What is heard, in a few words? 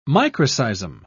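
"microseism", British English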